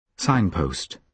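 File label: "signpost", British English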